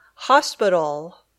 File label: "hospital", English